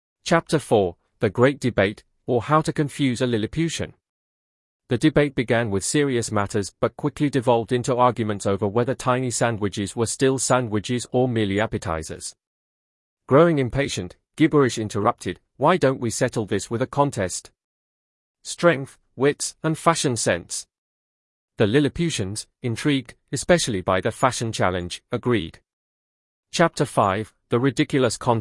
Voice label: Gibberish